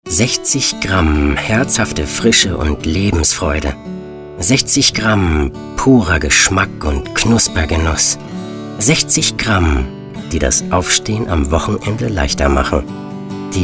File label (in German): Werbung; sinnlich; Bäckerei